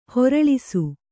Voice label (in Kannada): "ಹೊರಳಿಸು", Kannada